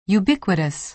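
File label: "ubiquitous", American English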